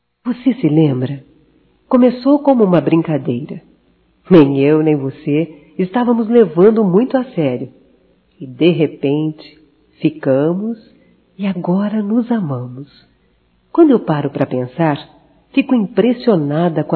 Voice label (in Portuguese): ROMANTICA(F), Românticas, fem